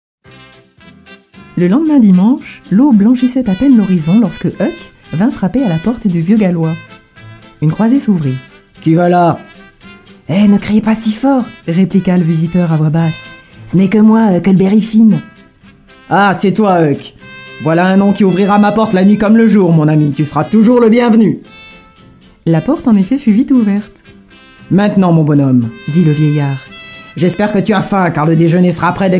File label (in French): Recit Enfants